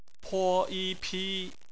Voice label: "pi", Chinese; phonetics; bpmf